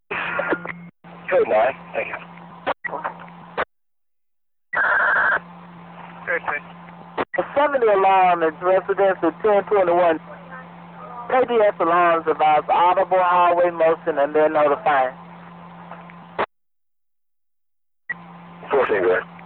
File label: Police Radio Southern